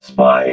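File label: "spy", robot voice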